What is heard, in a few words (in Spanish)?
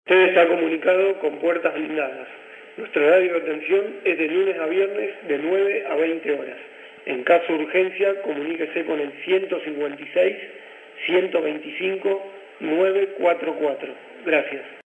mensajes telefonicos